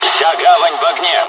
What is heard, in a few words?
japanese first wave, dialogs russian; War Thunder